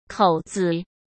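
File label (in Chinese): "口子", Mandarin Chinese; hsk; chinese-flashcards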